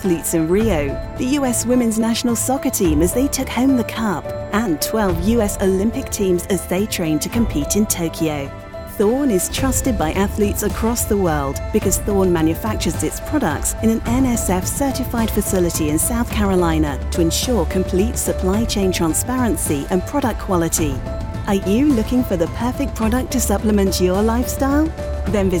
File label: Sports Radio